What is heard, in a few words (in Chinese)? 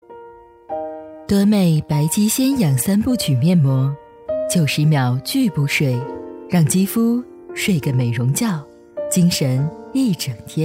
女 品牌广告; 多魅面膜